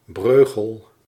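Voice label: "Breugel" Dutch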